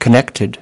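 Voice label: "connected", English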